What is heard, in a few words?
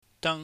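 "dang", Cantonese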